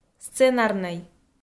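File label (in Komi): "сценарнӧй", Komi, female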